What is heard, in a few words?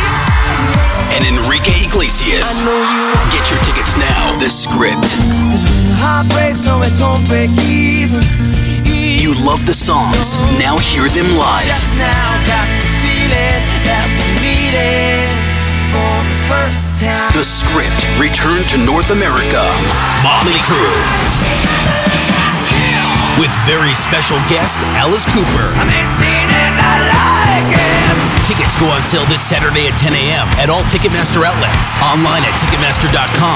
CONCERT AD